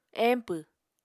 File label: "empw", Apatani